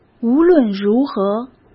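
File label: "无论如何", Mandarin Chinese; hsk; chinese-flashcards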